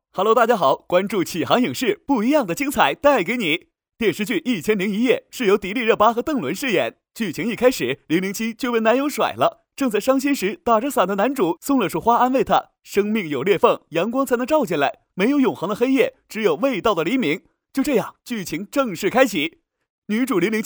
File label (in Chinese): 男, 电影解说, 活力幽默; 一千零一夜